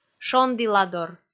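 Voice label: "шонділадор", Komi, female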